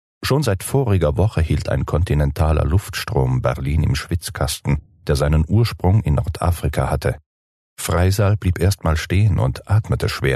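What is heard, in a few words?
German, male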